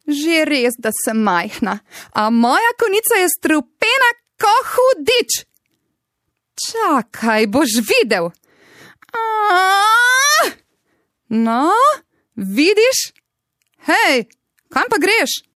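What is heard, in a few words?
slovenian female character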